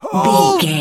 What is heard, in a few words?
voice male soldier hold